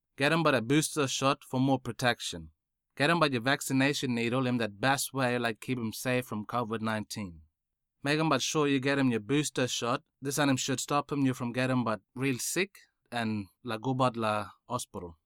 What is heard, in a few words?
booster westside kriol